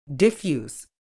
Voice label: "diffuse", uk